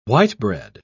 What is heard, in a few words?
"white-bread", uk